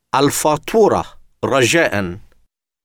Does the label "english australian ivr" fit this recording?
No